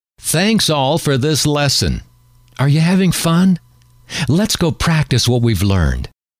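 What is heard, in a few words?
"that's all for this lesson are you having fun let's", male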